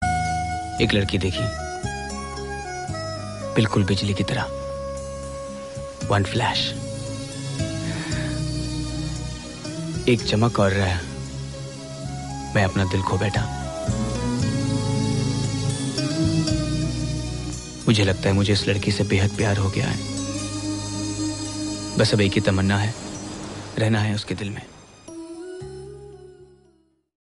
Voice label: romantic dialogue; r madhavan; maddy